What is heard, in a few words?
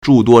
"zhu1 duo1", Chinese